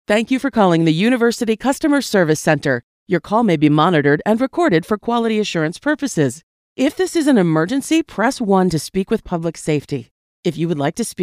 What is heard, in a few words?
english-us female ivr